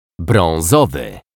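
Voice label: "brazowy", Polish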